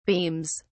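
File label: "beams", English, British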